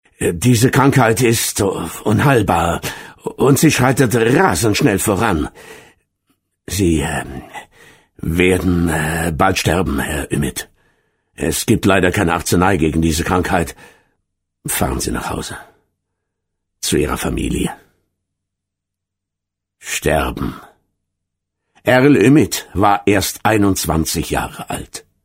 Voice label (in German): Hoerbuch